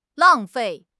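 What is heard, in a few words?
"lang4 fei4", Mandarin Chinese; HSK4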